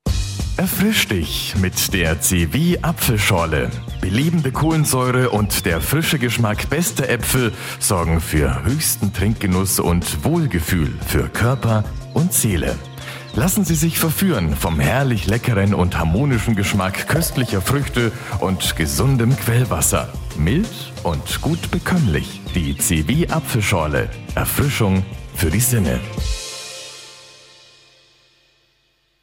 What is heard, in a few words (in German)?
rundfunkspot